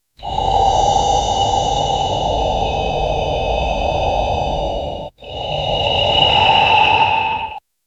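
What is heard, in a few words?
realistic creepy male whisper, horror game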